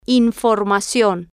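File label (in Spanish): "informacion", Spanish, female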